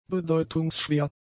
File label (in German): "bedeutungsschwer", German; adjectives